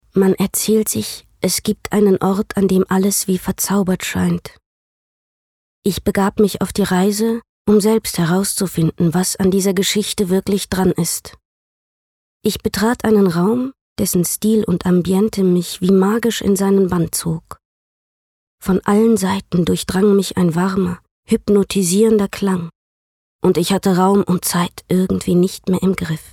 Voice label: Schauspiel Sanft

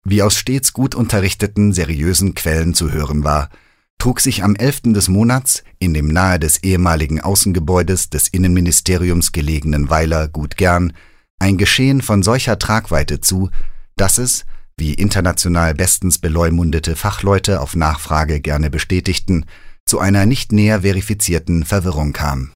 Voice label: Trocken Neutral

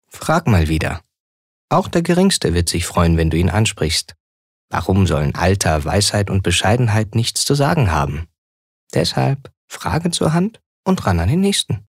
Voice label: Werbung, Sanft

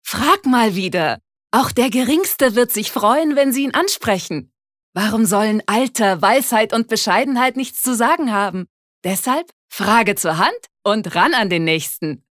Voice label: Werbung Dynamisch

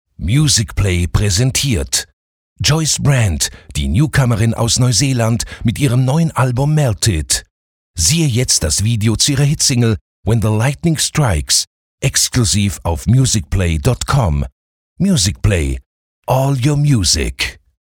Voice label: Freundlich

